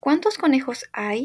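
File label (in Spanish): "conejos", Spanish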